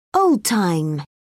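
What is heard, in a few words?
"old time", British English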